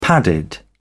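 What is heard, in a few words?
"padded", British English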